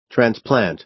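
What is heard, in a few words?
"transplant", American English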